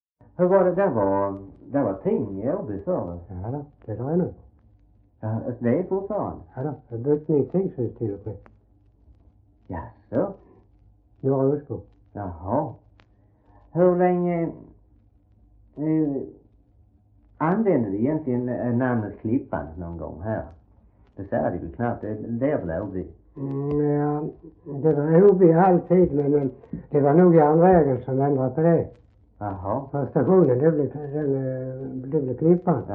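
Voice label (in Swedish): Röstånga Skåne, dialekter svenska sagor; Folkminnen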